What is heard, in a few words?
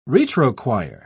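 "retrochoir", British English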